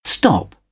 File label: "stop", British; AmericanBritish